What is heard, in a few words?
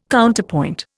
"Counterpoint", Indian